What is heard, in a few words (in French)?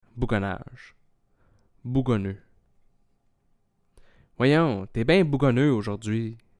bougonnage, québécois